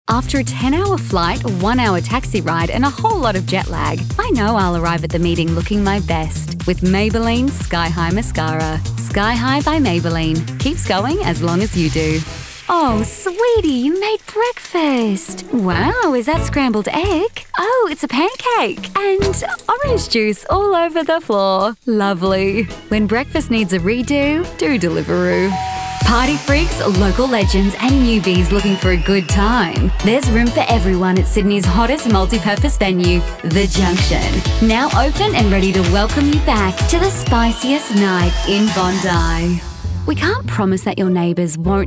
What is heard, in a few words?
Commercial